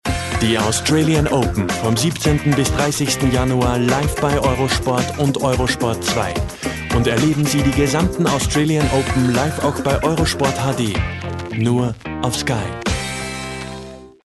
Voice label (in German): Werbung; Eurosport